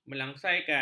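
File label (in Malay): "melangsaikan", Malay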